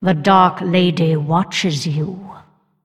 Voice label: Female Undead Bark